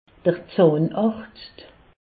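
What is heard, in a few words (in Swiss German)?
"zahnarzt", Alsatian; medecine; dentiste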